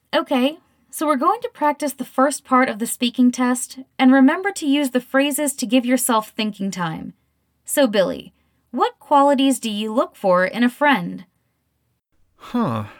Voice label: conversation; Unit 9